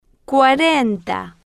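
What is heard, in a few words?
"cuarenta", Spanish, female, slow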